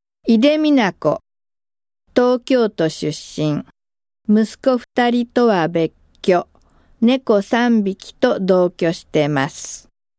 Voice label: freetalk, female voice

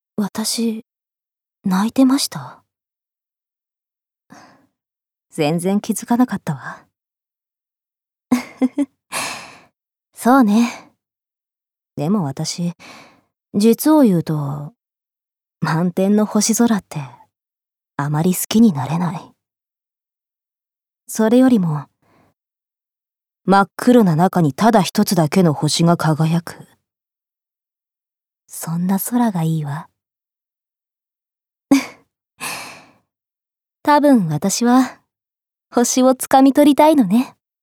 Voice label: female voice

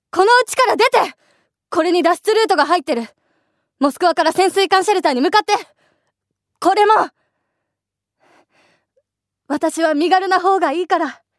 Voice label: female voice

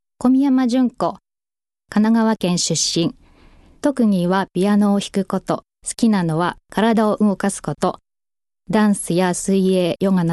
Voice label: freetalk, female voice

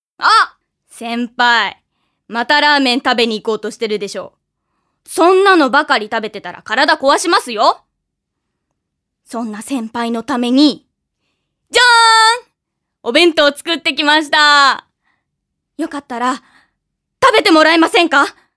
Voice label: female voice

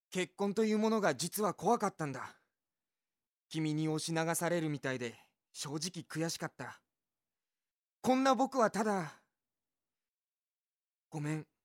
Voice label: male voice

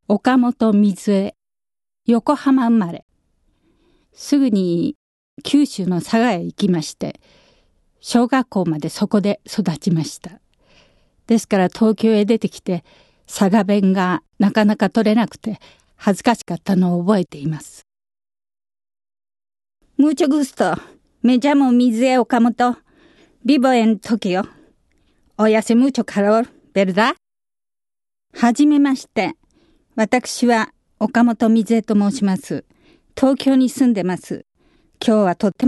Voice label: free talk, female voice

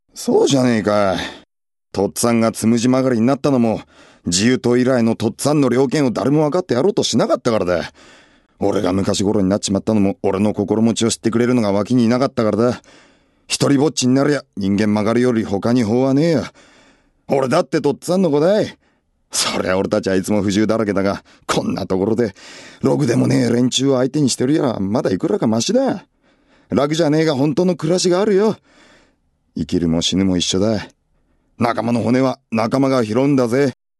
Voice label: male voice

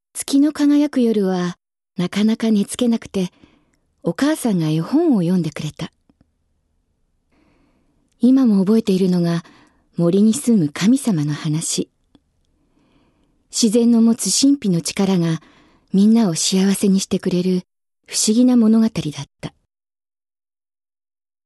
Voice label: monorogue, female voice

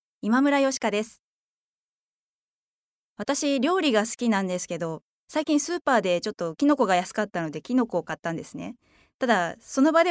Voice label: free talk, female voice

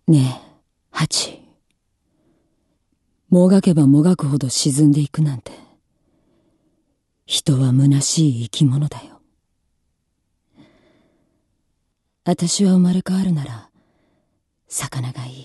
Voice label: female voice; セリフ

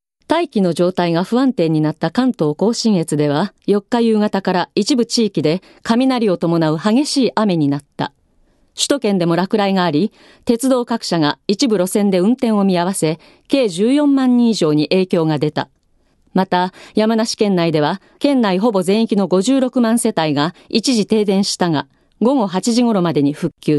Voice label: female voice

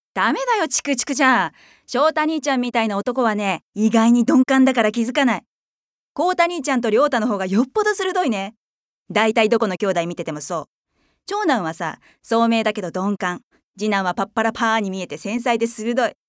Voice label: female voice

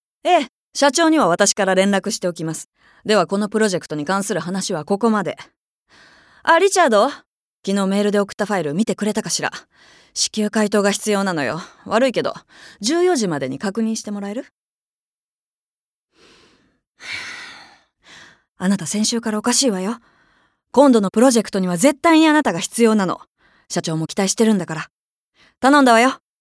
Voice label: female voice

